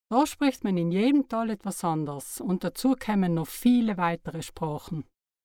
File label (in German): Bozner